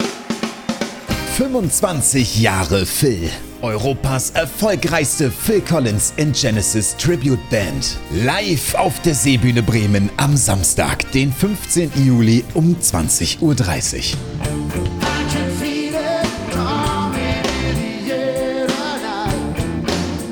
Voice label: Radiospot